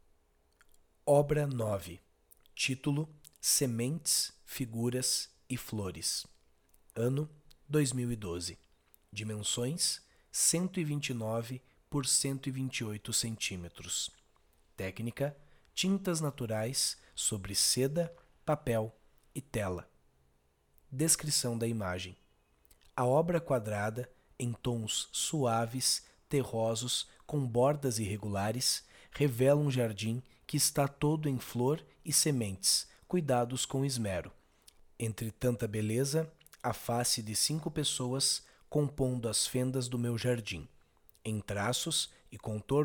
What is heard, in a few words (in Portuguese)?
audiodescricao; Galeria Virtual